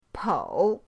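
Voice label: "pou", Mandarin pinyin, third tone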